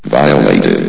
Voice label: "violated", vox; Source Engine; bshift

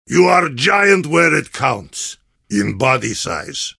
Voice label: heavy summary callout; compmode

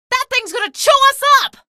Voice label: alert gunship, female; video games; npc; source engine; episodic; episode 1

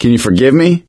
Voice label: sorry, survivor voice; source engine; left 4 dead 2; gambler

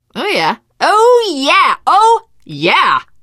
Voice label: hurrah, teen girl, survivor voice

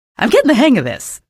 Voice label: kill, female; episodic; episode 1; Source engine

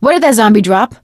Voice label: fallen survivor, survivor voice; Left 4 Dead 2; Source Engine; video games; producer

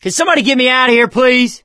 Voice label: call for rescue, survivor voice

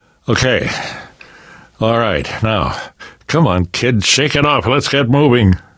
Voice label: revive friend, left4dead2 survivor voice namvet; source engine

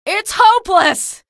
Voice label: evac casualty, female; episodic; episode 1; Source engine

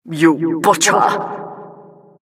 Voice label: announcer, vo, killstreak

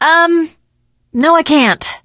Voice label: initial meeting, teen girl; video game; source engine; left4dead2; survivor

